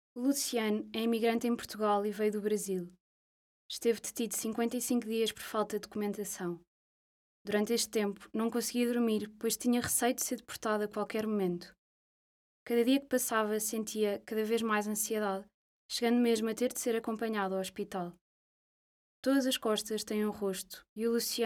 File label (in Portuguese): LOCUCAO